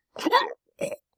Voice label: Amanita Hurt